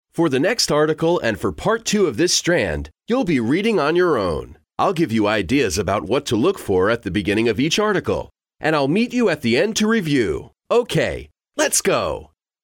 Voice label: male american voice over upbeat; english american

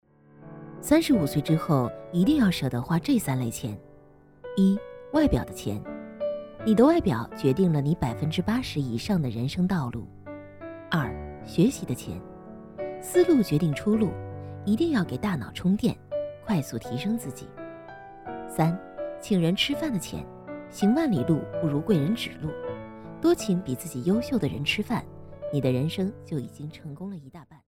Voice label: female chinese voice over sales, mandarin

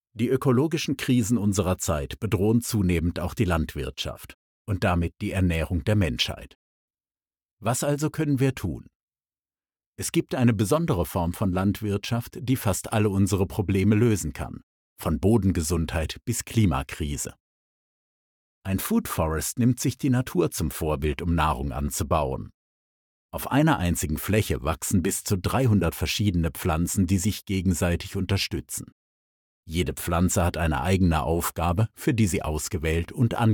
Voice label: male german voice over talent narrative

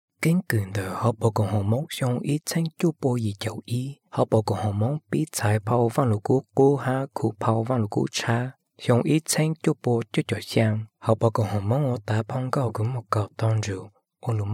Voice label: male hmong voice over talent narrative

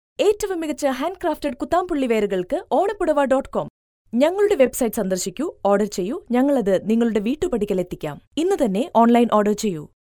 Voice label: female malayalam voice over sales

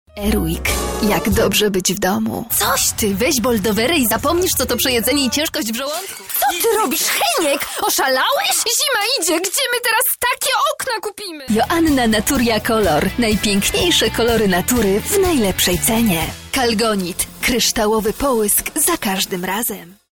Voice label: female polish voice over sales

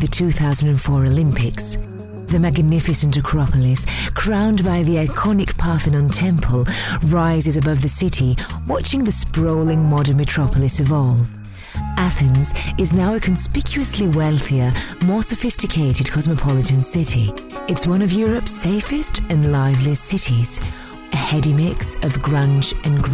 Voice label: female greek voice over english

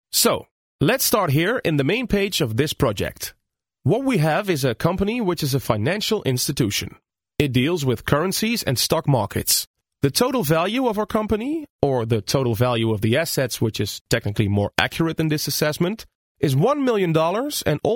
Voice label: male dutch voice over english

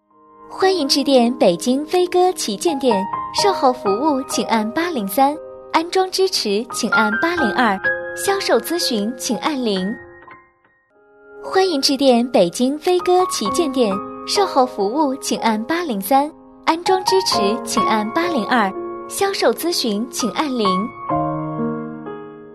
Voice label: female chinese voice over narrative, mandarin

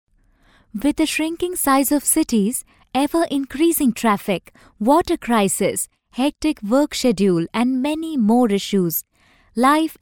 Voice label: female hindi voice over english

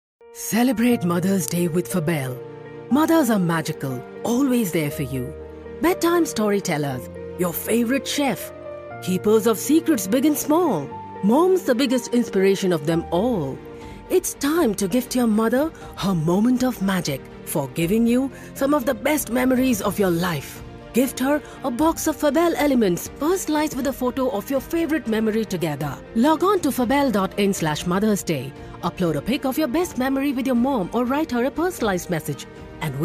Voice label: female indian voice over sales

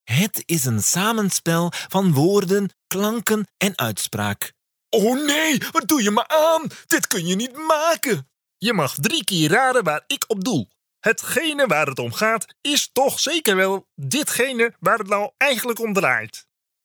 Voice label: male dutch voice over talent character

